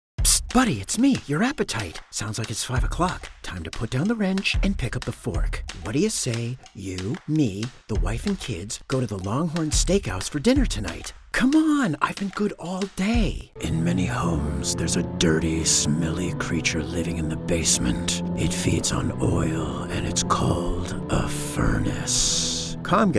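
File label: American commercial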